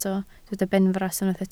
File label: "benfras", Welsh